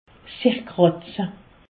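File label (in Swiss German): "kratze", Alsatian; medecine; gratter se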